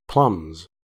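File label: "plumbs", US English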